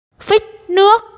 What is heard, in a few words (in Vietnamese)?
"Phích nước", Tiếng Việt